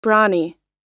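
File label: "b", American English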